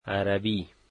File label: "Arabic", dari